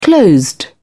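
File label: "closed", British English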